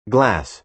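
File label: "glass", American English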